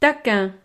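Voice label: "taquin", French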